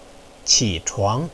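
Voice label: "qichuang", Mandarin Chinese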